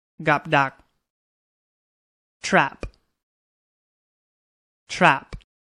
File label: "trap", English; Science New Vocabulary